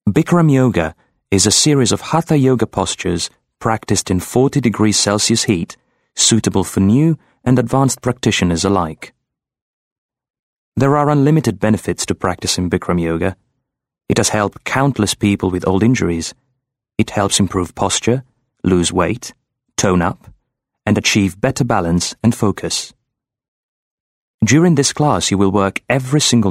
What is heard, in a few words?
Italian English Subtle Corporate